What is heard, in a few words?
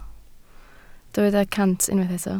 "cant", Welsh